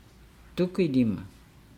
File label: "elbows", Nukuoro